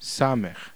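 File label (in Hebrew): "samech", Hebrew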